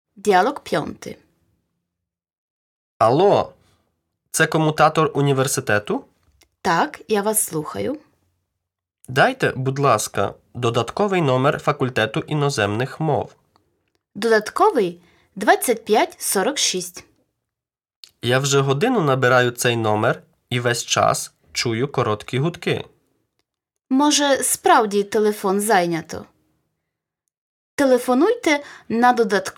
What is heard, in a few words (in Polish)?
Lekcja Dialog